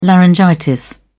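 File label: "laryngitis", British English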